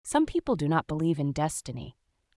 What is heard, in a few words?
sentence people do, US English